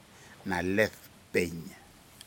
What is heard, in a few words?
birds Barn owl, Aneityum